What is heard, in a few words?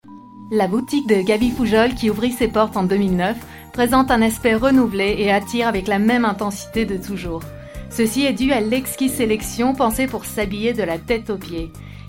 female french boutique comercial; ideasonora